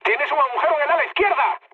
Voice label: left wing, mood high, speech; War Thunder

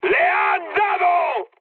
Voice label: aircraft destroyed mood high; War Thunder

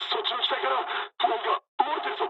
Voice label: tank damaged mood med, speech jp; War Thunder

